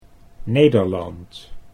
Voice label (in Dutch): "Nederland", Dutch; 'Holland, The Netherlands'